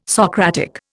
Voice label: "Socratic", Indian

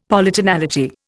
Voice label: "Polytonality", Indian; Dictionary

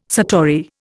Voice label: "satori", Indian

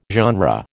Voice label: "genre", American

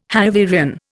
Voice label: "Hanoverian", Indian

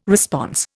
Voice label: "response", Indian

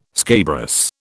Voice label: "scabrous", British

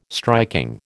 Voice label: "striking", American

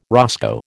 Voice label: "roscoe", American

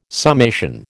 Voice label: "summation", American

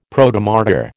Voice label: "Protomartyr", American

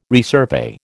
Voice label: "resurvey", American

